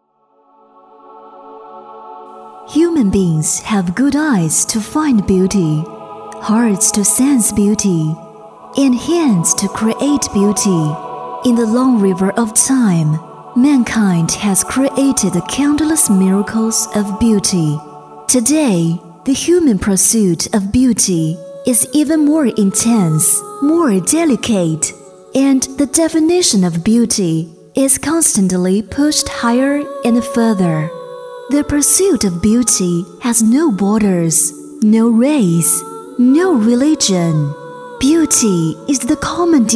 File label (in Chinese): 女 英 大音 梦幻大气